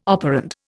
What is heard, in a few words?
"operand", Indian